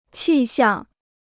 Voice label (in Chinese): "气象", Chinese